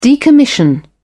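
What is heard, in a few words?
"decommission", British English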